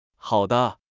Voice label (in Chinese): 宠物主人配音